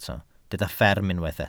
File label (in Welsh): "fferm" Welsh